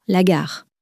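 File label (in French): "gare", French, female; flashcards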